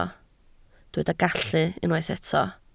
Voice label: "gallu", Welsh